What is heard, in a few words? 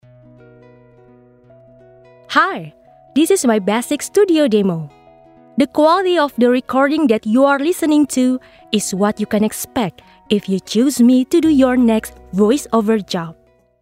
ENGLISH INTRODUCTION